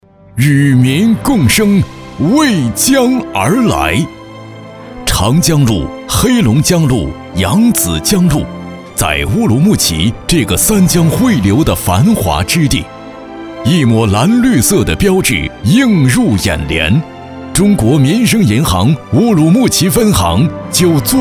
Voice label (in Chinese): 男, 宣传片; 民生银行